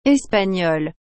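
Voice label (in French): "espagnole", French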